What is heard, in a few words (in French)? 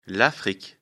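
"afrique", French; afrikalan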